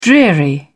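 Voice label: "dreary", British English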